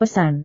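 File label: "pesan", Indonesian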